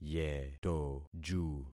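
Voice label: "yetoju", concatenated syllables; Affixing experiment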